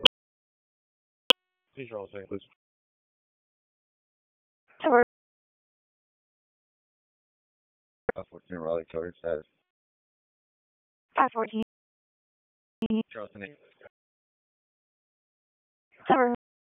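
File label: Raleigh Police Southeast District Dispatch, p25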